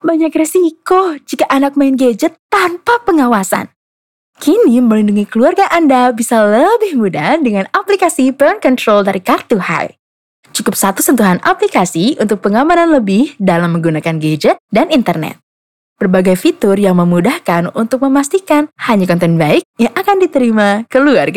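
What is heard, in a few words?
casual Indonesia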